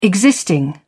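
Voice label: "existing", British English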